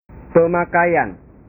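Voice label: "pemakaian", Indonesian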